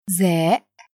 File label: "zay", Arabic letter